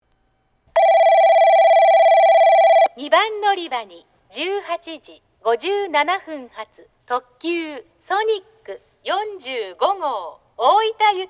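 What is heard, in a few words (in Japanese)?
2番のりば接近放送, 女声; 行橋; ソニック45号 大分行き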